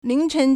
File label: "ling2chen2", Chinese